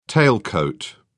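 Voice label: "tailcoat", British English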